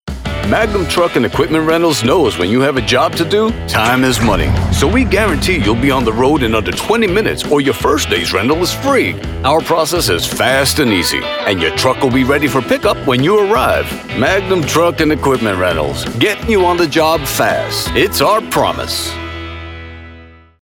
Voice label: Automotive Voice Over; Magnum Truck Rentals